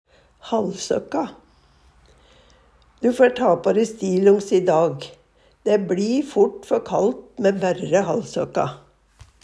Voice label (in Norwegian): "hallsøkk", Numedalsmål; Du fær ta på de stillongs i dag. Dæ bLi foRt før kalt mæ bærre hallsøkka.